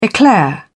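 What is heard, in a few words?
"eclair", British English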